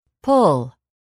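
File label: "pull", English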